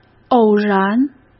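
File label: "偶然" Mandarin Chinese; hsk; chinese-flashcards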